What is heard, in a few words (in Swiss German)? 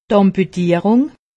"amputierung", alsace; medecine